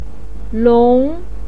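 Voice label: "long", Mandarin pinyin, tone 2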